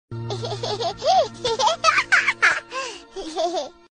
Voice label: little baby girl voice notification